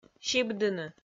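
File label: "сибдыны", Komi, female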